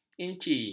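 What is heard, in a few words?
"inci", Malay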